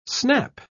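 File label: "snap", English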